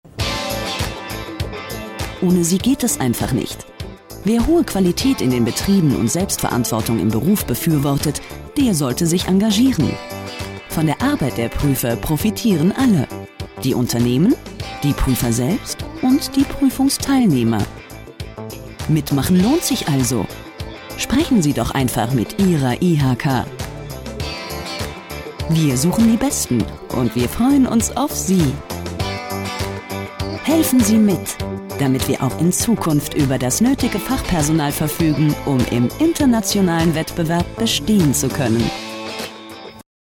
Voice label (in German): Werbung Freundlich